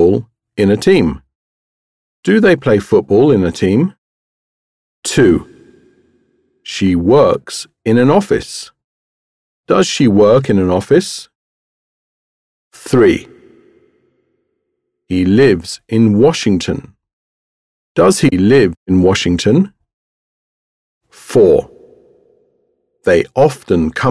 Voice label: Pronunciation Drill